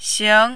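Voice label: "xing2", mandarin, rising tone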